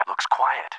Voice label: English Quiet

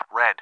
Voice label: English Red

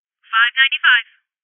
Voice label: Voices Triangulation Female

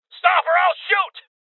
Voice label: Voices Freeze Male

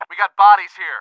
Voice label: English Body

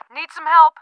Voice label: English Back

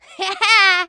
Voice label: clown female taunt; Clown Dodger

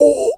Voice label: Player Pain; Cruelty Squad

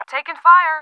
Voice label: English Takin

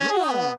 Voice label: Cyborg Excited

